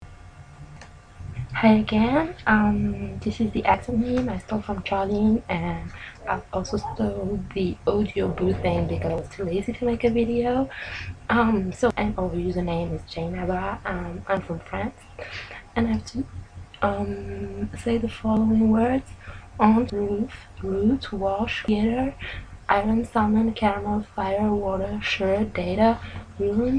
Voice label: accent